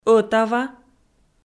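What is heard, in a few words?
"ӧтава", Komi, female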